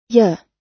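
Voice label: "you", US English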